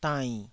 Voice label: "dainn3", Taiwanese syllable